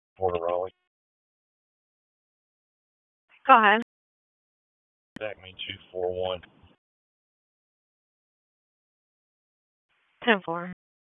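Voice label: Police Northeast District Dispatch, p25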